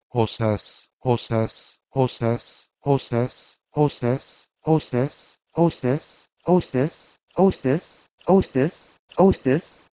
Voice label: Lithuanian ausis; PIE h2euses

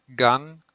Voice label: "gang", Proto-Germanic

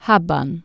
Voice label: "habban", Anglo Saxon

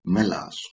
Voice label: "melas", Ancient Greek

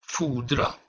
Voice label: "fodra", Proto Germanic

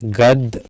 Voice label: "gad", Sanskrit

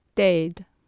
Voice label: "deed", Middle English

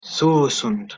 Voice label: "thusund", Proto Germanic

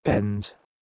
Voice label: "bhendh" PIE

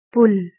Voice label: "pul", Urdu

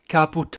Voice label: "caput", Latin

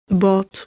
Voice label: "bote" Albanian

